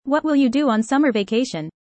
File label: fast; four seasons